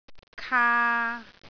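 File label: "kaa3", Cantonese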